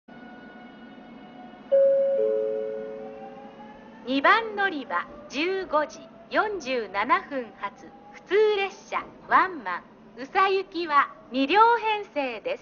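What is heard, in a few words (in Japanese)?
2番のりば案内放送, 中津駅; 普通ワンマン 宇佐行き; ※大分寄りに停車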